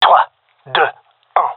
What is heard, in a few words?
countdown, gendarmerie male; vo